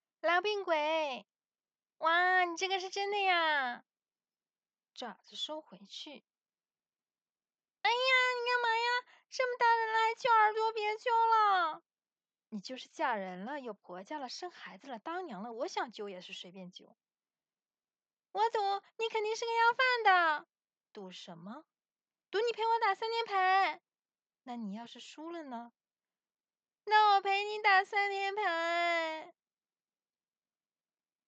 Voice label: 角色扮演俏皮

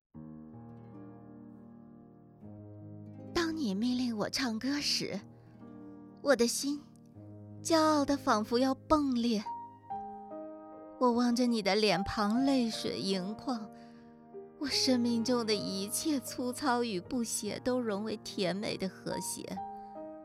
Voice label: 样音普通话青年女性音经典诗歌朗诵

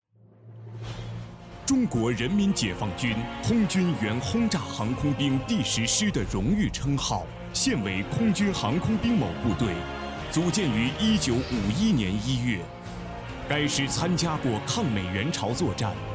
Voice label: 男声昂扬

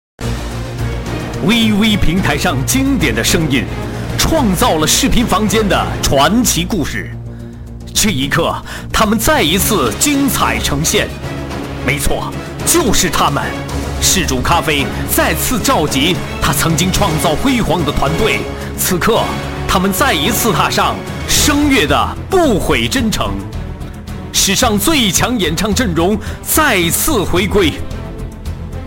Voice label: 视频房间宣传片; 星空视频房间宣传片

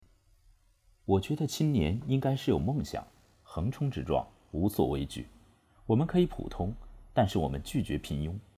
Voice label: 同城小广告

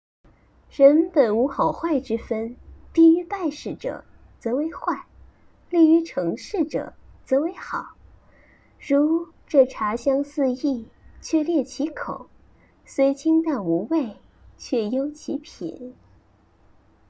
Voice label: 御姐音

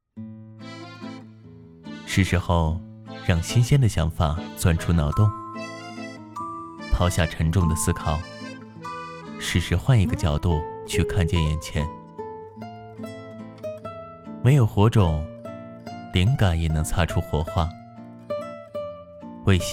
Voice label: 旁白

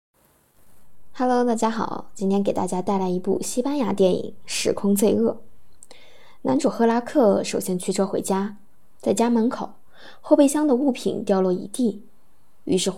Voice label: 电影解说 试音

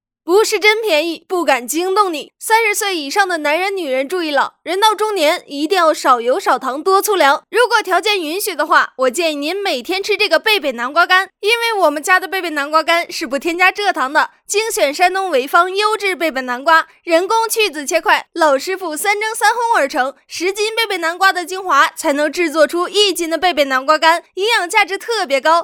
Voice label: 促销信息流

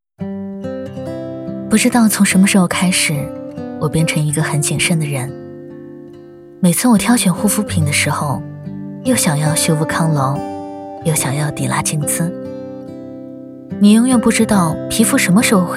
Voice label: 沪普; 珀莱雅

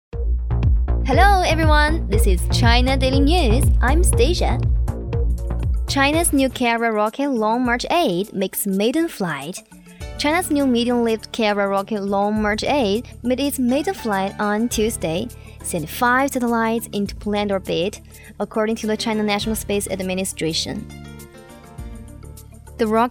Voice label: 英文新闻播报

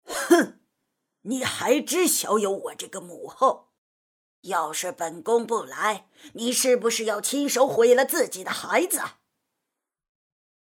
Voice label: 老年音

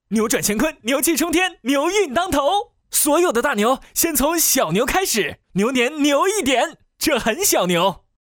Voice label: 电动广告配音; 小牛电动

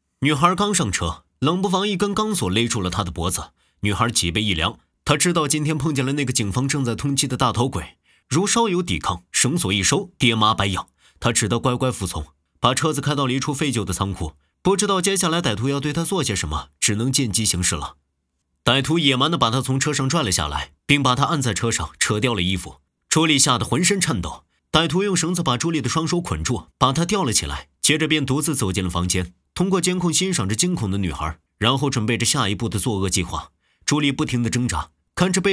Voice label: 影视解说

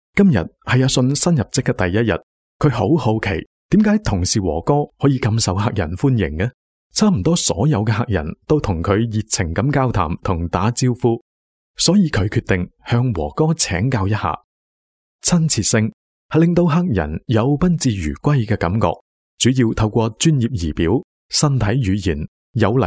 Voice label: 年轻教程服务评星

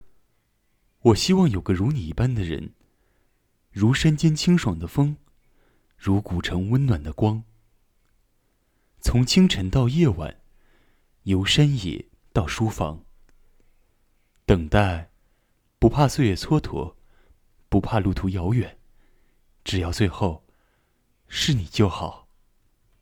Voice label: 情感类

